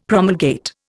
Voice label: "promulgate", Indian; Dictionary

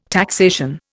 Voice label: "taxation", Indian

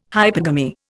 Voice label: "hypergamy", Indian

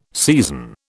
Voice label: "season", British

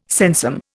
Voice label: "sensum", Indian

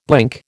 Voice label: "blink", American

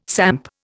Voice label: "samp", Indian

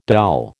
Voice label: "doll", American

